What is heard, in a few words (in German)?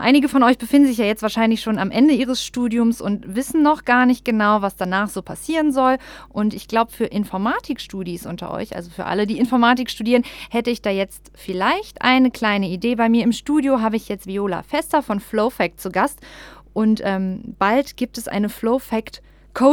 Interview